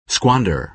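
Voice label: "squander", American English pronunciation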